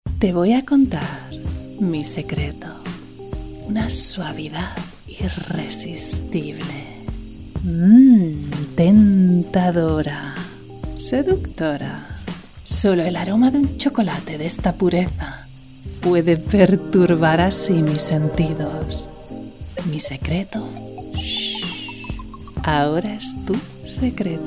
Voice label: Spanish Castilian SMOOTH INTIMATE SENSUAL

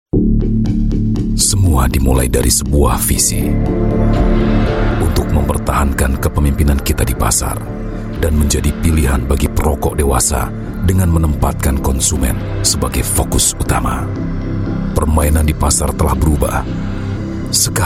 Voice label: Bahasa Indonesia voice sample

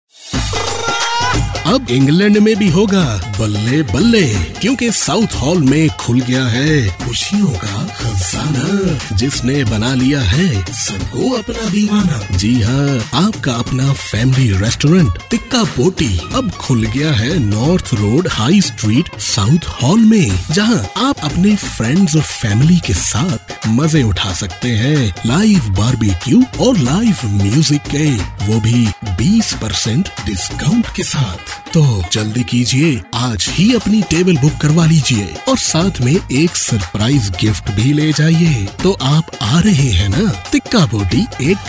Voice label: Urdu, Male; London Tikka Restauran

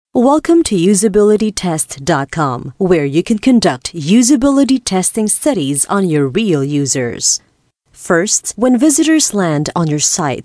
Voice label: Tagalog Web Tutorial

